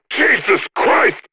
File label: scared